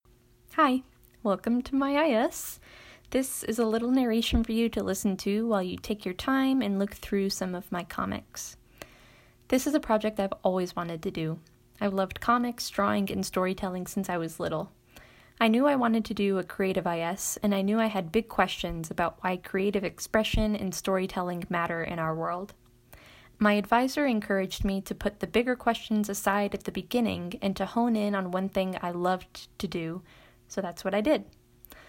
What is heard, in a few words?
voiceover